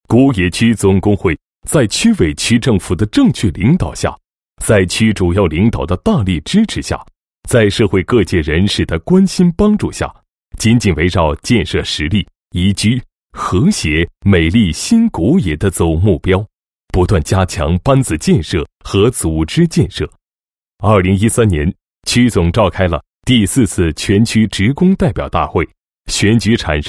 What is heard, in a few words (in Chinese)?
男 工作汇报; 古冶区总工会情况简介及主要工作